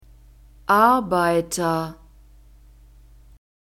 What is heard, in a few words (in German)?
"Arbeiter", German; masculine